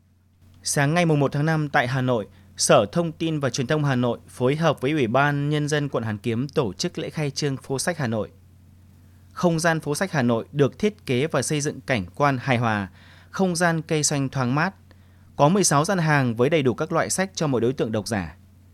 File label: news